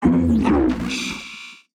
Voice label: Automaton Alert Line; NPC; Heist Robot Spotted

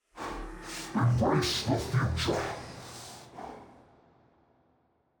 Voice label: Midfight Stereo; NPC; Malachai Combat

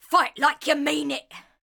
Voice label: Ranged Female Chokepoint Line, dialogue; NPC; Heist Thug Chokepoint

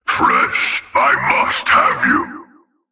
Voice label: Male Alert Line; NPC; Heist Cultist Spotted

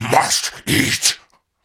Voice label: Cannibal Male Taunt; Trunk Act One Monster

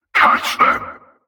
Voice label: Male Chokepoint Line, dialogue; NPC; Heist Cultist Chokepoint

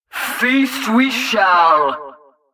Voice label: Female Mid Combat Line; NPC; Heist Cultist Mid Combat

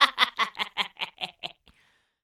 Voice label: Female Taunt, Cannibal, dialogue; Trunk Act One Monster

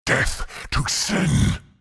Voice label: Mid Fight Taunts; Voll; NPC; Voll Combat

